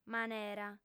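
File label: "manera", female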